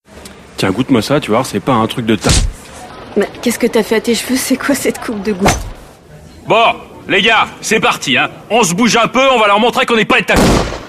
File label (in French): grave convaincant; voix off; LGBT